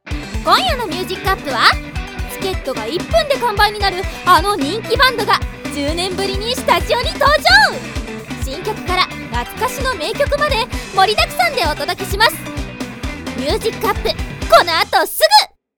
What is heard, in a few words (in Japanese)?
明るめ